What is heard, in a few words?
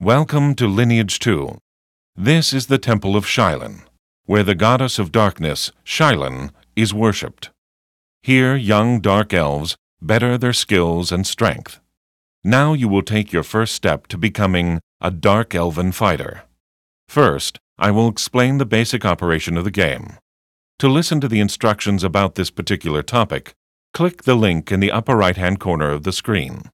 tutorial voice; lineage2